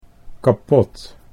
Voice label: "kapot", Dutch

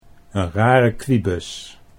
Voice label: "'n rare kwibus", Dutch

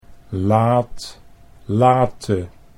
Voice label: "laat late", Dutch

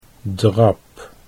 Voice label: "drab", Dutch

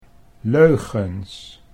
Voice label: "leugens", Dutch

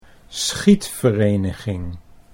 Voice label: "schietvereniging", Dutch

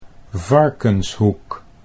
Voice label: "Varkenshoek", Dutch

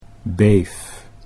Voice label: "beef", Dutch

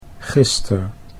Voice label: "gister", Dutch

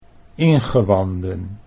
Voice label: "ingewanden", Dutch

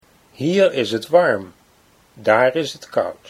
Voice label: "hier warm daar koud", Dutch

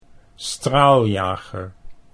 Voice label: "straaljager", Dutch

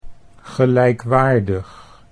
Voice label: "gelijkwaardig", Dutch

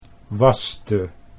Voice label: "waste", Dutch

